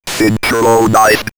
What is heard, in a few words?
Robot Synchronise, robot vox; X-Static Goldmine